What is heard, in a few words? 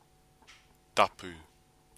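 "tapu", Māori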